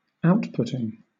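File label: "outputting", British English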